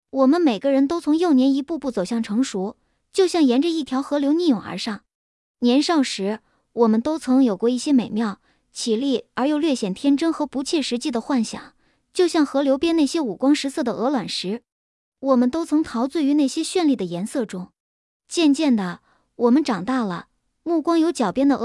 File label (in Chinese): 旁白轻快有力